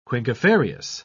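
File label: "quinquefarious", English, uk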